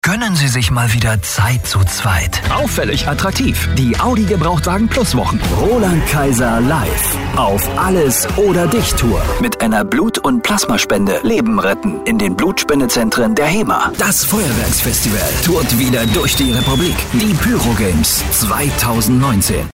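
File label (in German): Werbung Mix; Fremdtake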